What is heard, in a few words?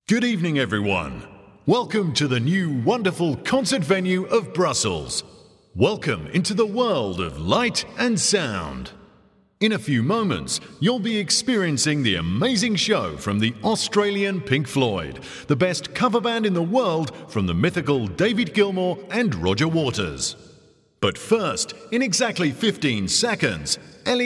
live announcer; Palais 12